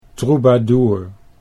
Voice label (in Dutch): "troubadour", Dutch